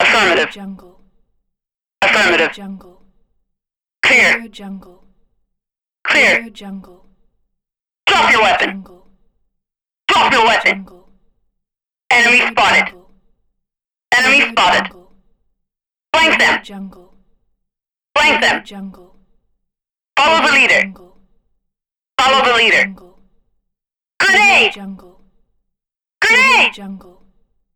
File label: female military radio voice pack